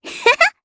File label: Vox Happy, jp; Cecilia; kingsraid